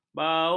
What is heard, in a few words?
"baur", ms